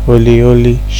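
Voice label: "holy holy", Nigerian Pidgin, male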